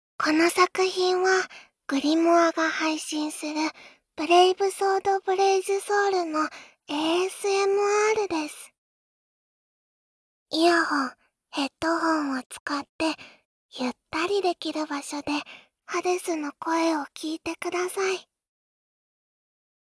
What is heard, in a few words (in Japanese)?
タイトルコール